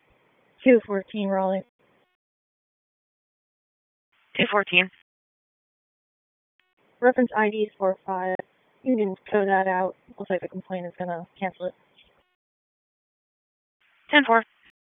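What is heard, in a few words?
Police Northeast District Dispatch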